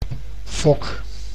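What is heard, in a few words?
"fok", Dutch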